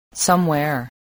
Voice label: "somewhere", English voice